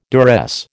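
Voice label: "duress", American English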